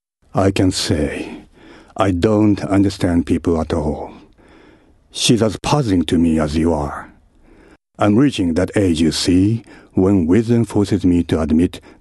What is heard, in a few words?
english, male voice